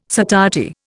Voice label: "satiety", Indian

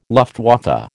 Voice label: "Luftwaffe", American

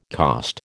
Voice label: "cost", American

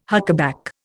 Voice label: "huckaback", Indian

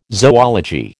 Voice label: "zoology", American

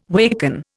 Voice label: "waken", Indian

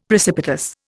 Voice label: "precipitous", Indian; Dictionary

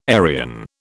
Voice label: "Arian", British

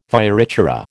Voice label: "fioritura", American

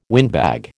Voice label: "windbag", American

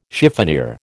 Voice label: "chiffonier", American

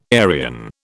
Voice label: "Aryan", British

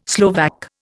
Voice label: "Slovak", Indian

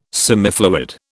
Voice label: "semifluid", British

